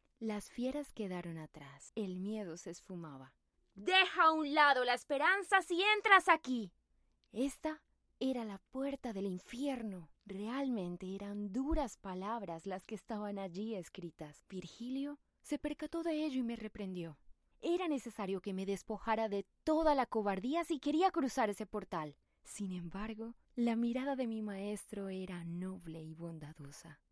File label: Spanish Colombian audiobooks